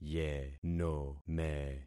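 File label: "yenome", concatenated syllables; Affixing experiment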